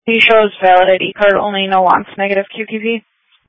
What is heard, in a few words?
Sheriff Dispatch